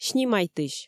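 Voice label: "снимайтысь", Komi, female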